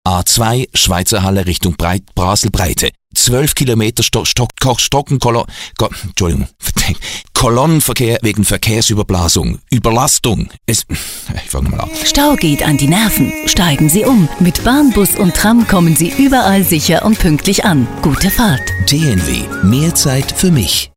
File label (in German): Radiospot Hoch-dt. Sprecher; TNW Staupause